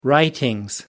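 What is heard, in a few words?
"writings", English, British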